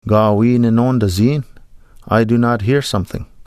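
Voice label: "Gaawii nnoondanziin", Ojibwe; I do not hear something; Nanabush Looses The Meat; Noondaan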